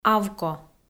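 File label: "авко", Komi-Zyrian, female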